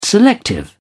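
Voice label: "selective", British English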